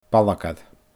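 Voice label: "balgair", Scottish Gaelic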